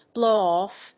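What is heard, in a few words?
"blow off", English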